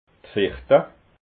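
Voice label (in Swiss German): "fichta", Alsatian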